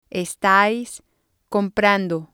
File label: "estais comprando", spanish